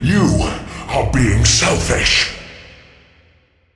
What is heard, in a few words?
Midfight Mono; NPC; Malachai Combat